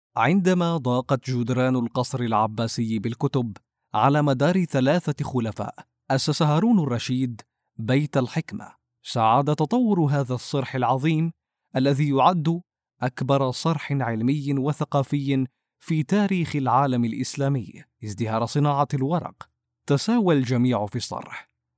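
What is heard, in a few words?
Arabic, Saudi Arabia, male, voice over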